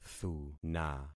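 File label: "thuna", concatenated syllables; Affixing experiment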